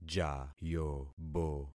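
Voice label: "jayobo", concatenated syllables; Affixing experiment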